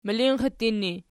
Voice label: "milinxittin", Archi